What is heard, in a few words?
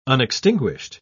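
"unextinguished", English, uk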